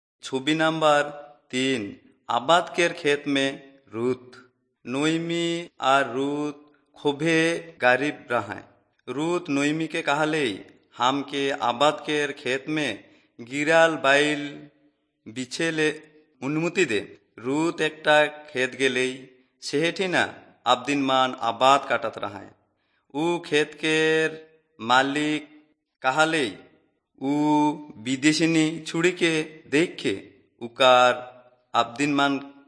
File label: servants of god urao, kurukh drama